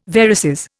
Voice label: "varices", Indian

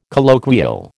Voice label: "colloquial", American

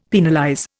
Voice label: "penalize", Indian; Dictionary

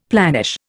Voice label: "planish", Indian; Dictionary

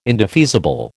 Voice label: "indefeasible", American

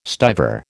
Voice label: "stiver", American

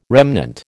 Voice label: "remnant", American

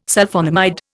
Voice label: "Sulphonamide", Indian

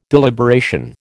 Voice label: "deliberation", American

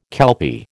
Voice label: "kelpie", American

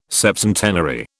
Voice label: "septcentenary", British

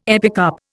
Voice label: "epicarp", Indian

